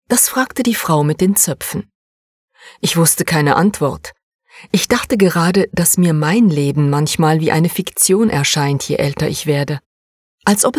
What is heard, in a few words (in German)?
Kurzgeschichte